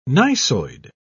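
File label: "gneissoid", British English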